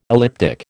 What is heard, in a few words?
"elliptic", American